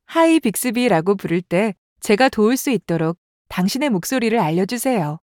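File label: wake up ready Korean; Bixby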